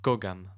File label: gather, romans male